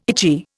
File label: "itchy", Indian